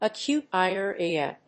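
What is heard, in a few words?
"acute diarrhea", English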